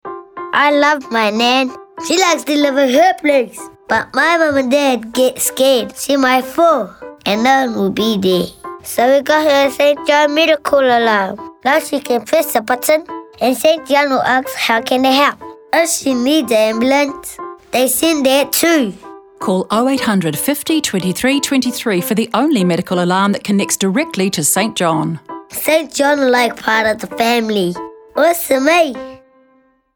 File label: Radio VO Original Music; St John 30 Radio Alt